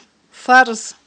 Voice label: "фарз", Aghul